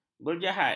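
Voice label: "berjahat", Malay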